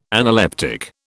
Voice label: "analeptic", British